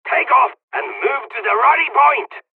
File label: takeoff, hungarian; War Thunder